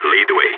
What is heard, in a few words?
following friend, balkan; vo